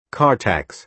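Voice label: "car tax", American English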